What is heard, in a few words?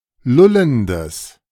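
"lullendes", German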